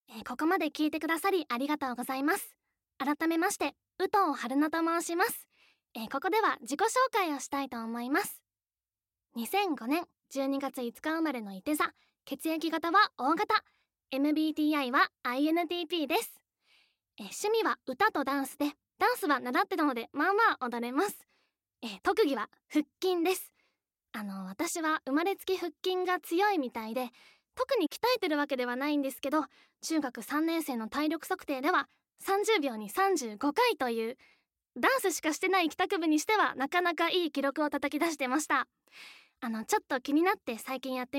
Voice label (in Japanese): フリートーク